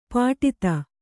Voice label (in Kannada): "ಪುಟಿತ", Kannada